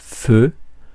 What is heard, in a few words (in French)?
"feu", francais; Mots francais phonemes